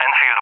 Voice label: "Enfield", ENG; CallSign; Allied Flight; DCS